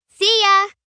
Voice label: See Ya, 1 girl, 9 yrs; Greeting; Talking